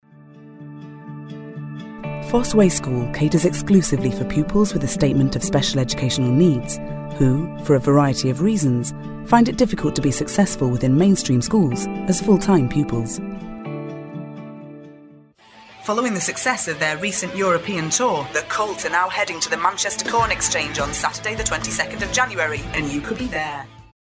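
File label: English UK General; GoLocalise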